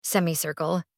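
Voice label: "semicircle", voice; matching game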